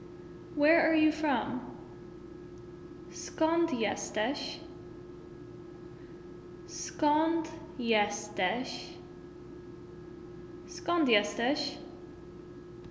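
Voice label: Where are you from, Polish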